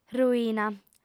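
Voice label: "ruina", female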